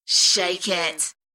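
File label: British female Shake it; beanstalk audio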